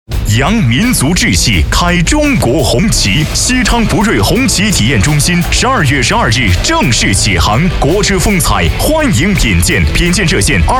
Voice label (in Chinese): 男 汽车广告; 红旗